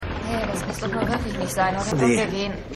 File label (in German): Frau Bar; Rescue Me